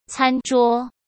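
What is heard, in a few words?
"餐桌", Mandarin Chinese; hsk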